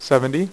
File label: "70", dealer's speech; PowerPOKER Demo